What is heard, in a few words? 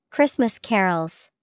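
Christmas carols, English vocabulary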